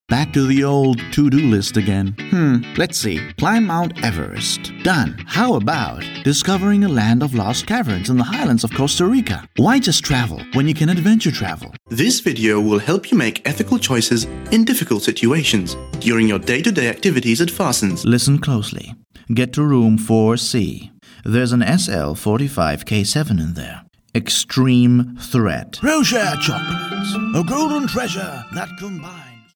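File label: Maltese-English, male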